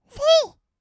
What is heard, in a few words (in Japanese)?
"せいー", kawaii voice; ASMR; 1200 kawaii voice!!; 音ゲー